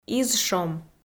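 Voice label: "изшом", Komi-Zyrian, female; audio dict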